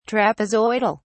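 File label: "trapezoidal", British English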